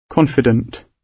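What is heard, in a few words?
"confident", British English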